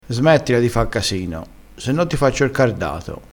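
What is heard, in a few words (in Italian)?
"cardato", dialettale crespinese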